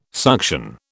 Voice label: "suction", British